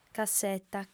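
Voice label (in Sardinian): "casseta", female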